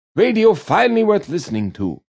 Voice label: Radio Worth Listn, Radio, Male

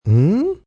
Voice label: Hmm What, male; Exclamation Sound Effects; Reaction

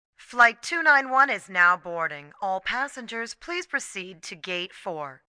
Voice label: Boarding, Airport, PA, announcements, female

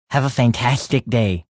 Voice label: Have Fantastic Day, Male

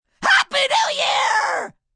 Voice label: New Year Raspy, male; Occasion, event

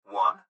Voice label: Gps Voice, Male; Clip Speaker Adult Male; Recorded Voice; Blastwave; Technology

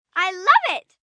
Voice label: I Love It, Reaction, 1 girl, 11 yrs.

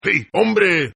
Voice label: Toughguy Hey Spanish, Tough Hispanic Male; Attitude Sound Effects

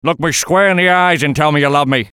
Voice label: VOICE COMICAL; Radio Voice; Blastwave

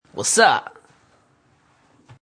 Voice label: Man’s slang for “what’s up”.; Was' Up; Talking Sound Effects; Voice

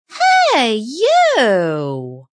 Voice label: Hey You Female; Attitude

